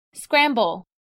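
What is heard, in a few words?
"scramble", English word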